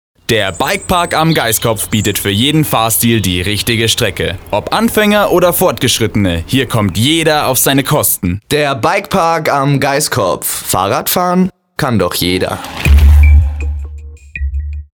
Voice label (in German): Werbung Reminder; Irlbacher